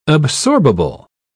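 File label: "absorbable", British English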